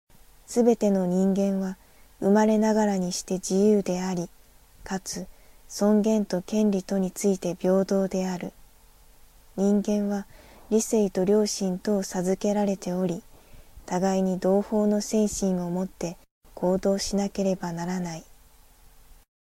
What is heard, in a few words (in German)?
japanisch Erklaerung der Menschenrechte; Kinderalltag